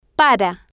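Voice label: "para", Spanish, female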